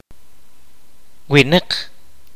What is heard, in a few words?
"person human being", kaqchikel